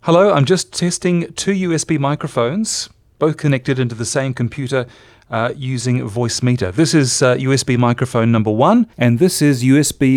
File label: Voice Meeter 2 x USB Microphones